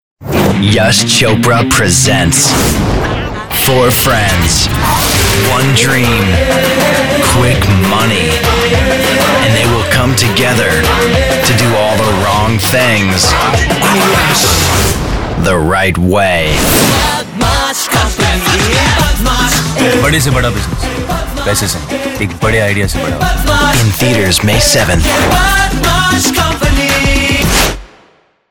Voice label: Promo; bad mash trailer bollywood